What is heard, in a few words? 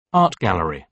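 "art gallery", British English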